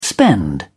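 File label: "spend", English, British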